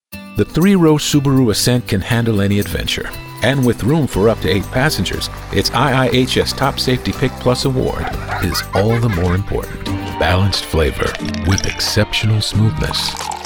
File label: 30s 40s Male African American Commercial